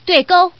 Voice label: "dui", woman; guizhou

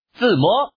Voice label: "zimo", man; guizhou

